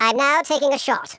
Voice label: fire, speech, Patronising